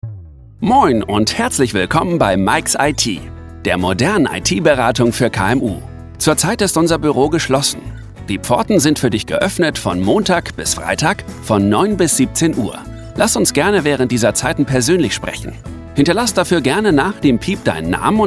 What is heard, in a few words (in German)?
telefonansage smart frisch musik